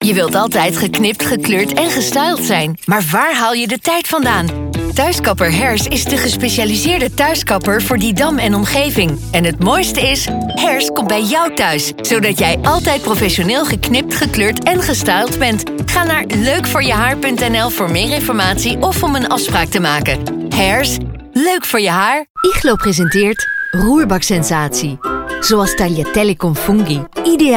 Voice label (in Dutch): NL commercial Examples, female voices